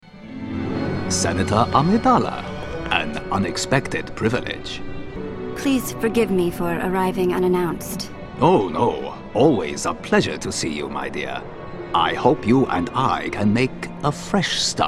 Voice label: Animation